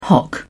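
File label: "hock", British English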